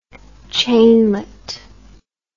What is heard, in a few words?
"chainlet", English